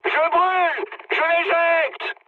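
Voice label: French on fire mood high; War Thunder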